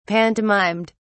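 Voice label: "pantomimed", British English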